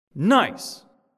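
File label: announcer nice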